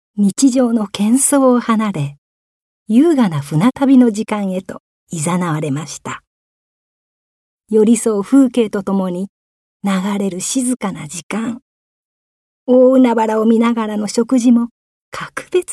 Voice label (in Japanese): ナレーション